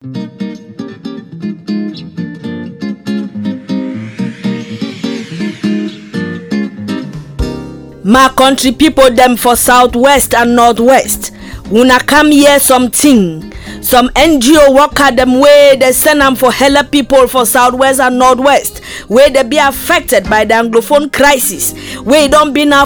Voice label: Female voice; Radio Spot; Audios PSEA; Cameroonian Pidgin English; The Society for the Promotion of Initiatives in Sustainable Development and Welfare (SOPIS